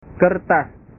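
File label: "kertas", Indonesian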